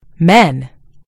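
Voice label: "men", American English; gcompris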